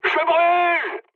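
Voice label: on fire, mood medium, French; War Thunder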